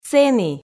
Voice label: "сэні", Komi

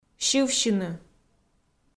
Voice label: "сьывсьыны", Komi

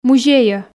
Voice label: "музейӧ", Komi

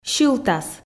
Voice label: "сьылтас", Komi

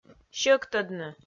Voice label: "сьӧктӧдны", Komi

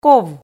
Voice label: "ков", Komi

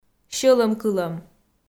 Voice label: "сьӧлӧмкылӧм", Komi

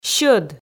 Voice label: "сьӧд", Komi

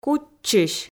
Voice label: "кутчысь", Komi

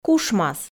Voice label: "кушмас", Komi

